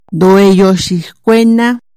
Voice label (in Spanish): "Cuídate", Chontal